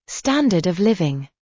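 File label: "standard of living", British English; Life, leisure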